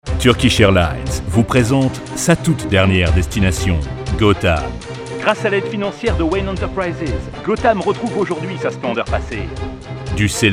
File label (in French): voix grave pub tv nationale; gotham